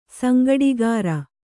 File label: "ಸಂಗಡಿಗಾರ", kannada